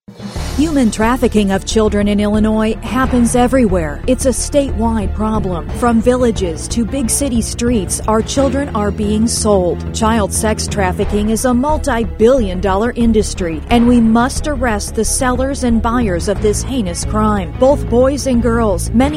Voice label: public service announcements; human trafficking; DCFS